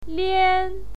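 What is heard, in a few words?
"lian", pinyin, fourth tone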